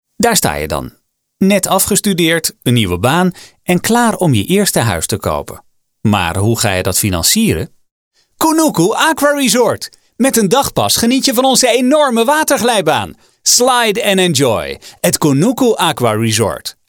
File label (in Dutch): enthousiast